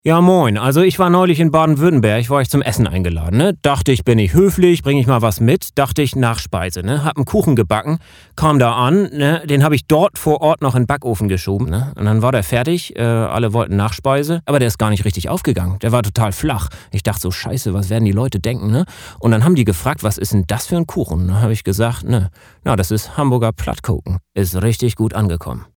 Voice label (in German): Sprecherdemo Dialekt